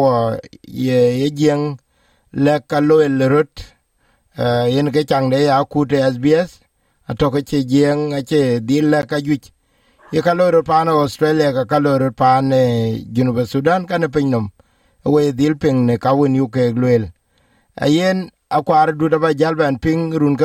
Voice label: dinka